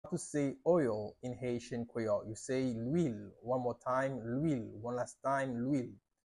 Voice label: "Lwil" Haitian Creole pronunciation by a native Haitian teacher; How to say Oil in Haitian Creole